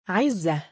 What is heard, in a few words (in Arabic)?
"3izzah", male; Arabic; given name